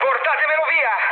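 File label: Italian aircraft damaged mood med, speech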